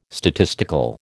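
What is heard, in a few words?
"statistical", American English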